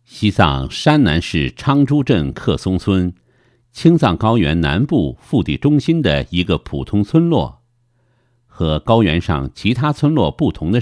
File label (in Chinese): 男 有声读物 西藏